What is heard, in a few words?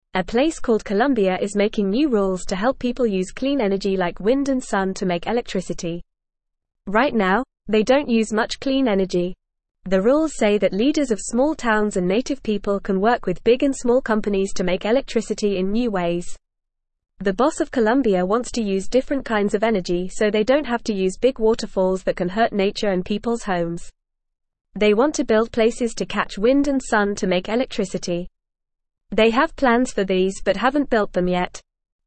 English Newsroom Beginner FAST Reading; Colombia Makes New Rules for Clean Energy